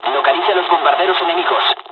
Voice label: bomber attack, dialogs spanish; War Thunder